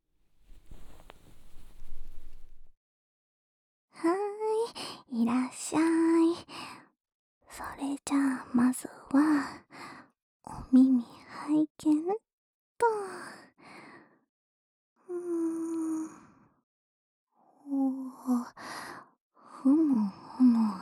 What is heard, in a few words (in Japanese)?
まずは耳かきからしてあげるね……♪（右の耳かき, ASMR